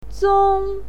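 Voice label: "zong1", Mandarin Chinese syllable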